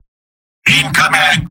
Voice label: spy incoming; mvm